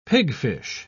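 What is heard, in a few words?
"pigfish", British English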